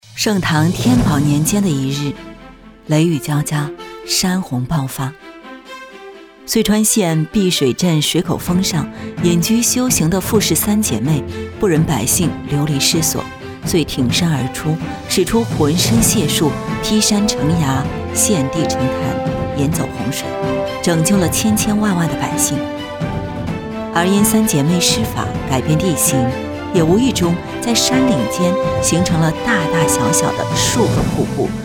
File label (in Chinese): 女 讲述; 白水仙